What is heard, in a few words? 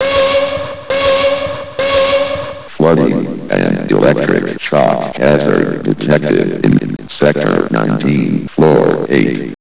announcement; sven; sector19